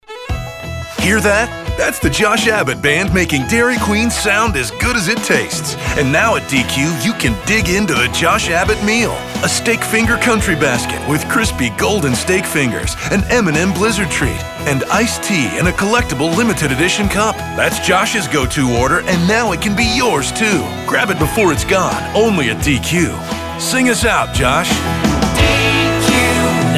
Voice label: RADIO; texas dairy queen josh abbott meal